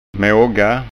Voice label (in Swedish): "maga", skånska